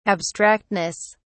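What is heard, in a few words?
"abstractness", English, British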